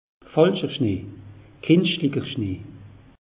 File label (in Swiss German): "falscher schnee", alsace; meteo